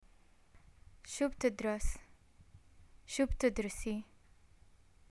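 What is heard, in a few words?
what do you study, Jordanian Arabic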